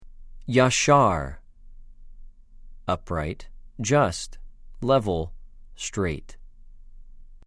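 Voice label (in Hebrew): "יָשָׁר", Hebrew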